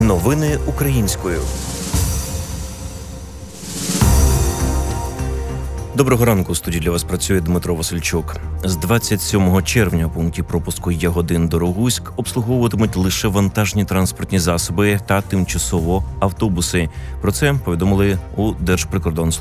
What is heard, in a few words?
Ukrainian News